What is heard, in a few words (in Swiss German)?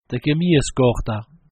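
"gemiesgarta", Alsatian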